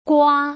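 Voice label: "gua", Putonghua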